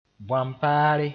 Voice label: "bõang-paare", moore

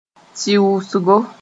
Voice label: "tɩʋʋsgo", moore

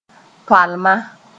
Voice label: "toalma", moore

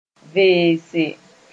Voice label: "veese", moore

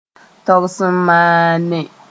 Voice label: "togs-n-maane", Mooré

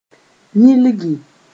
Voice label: "yĩlgi", Mooré

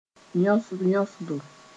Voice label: "yõsd-yõsdo", Moore

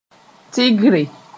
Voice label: "tɩgre", moore

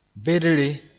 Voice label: "bedre", Mooré

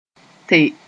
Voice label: "te", mos; prop several things

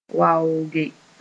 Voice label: "waooge", Mooré; respect

